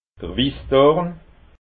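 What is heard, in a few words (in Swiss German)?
"wissdorn", Alsatian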